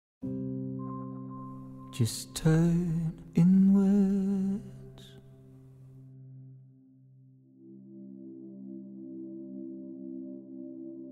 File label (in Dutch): Meditatie; Turn Inwards; Een Liefdevol Moment Voor Jou Alleen; Trailer